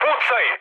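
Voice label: Serbian attack position mood med, speech; War Thunder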